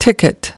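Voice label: "ticket", English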